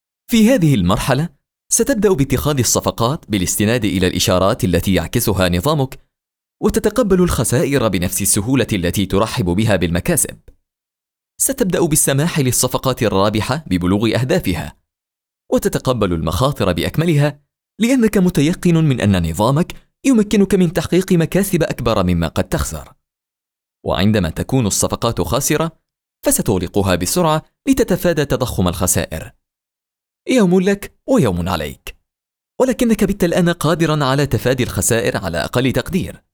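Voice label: Modern Standard Arabic Educational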